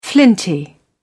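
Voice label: "flinty", British English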